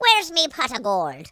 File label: "boring", Irish; speech